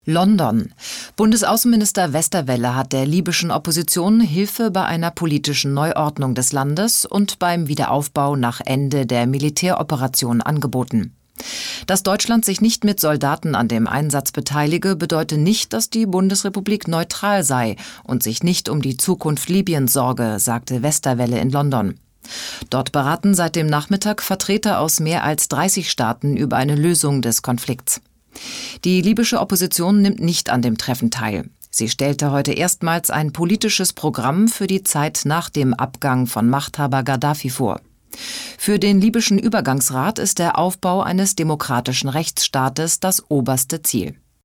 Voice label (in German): kommentar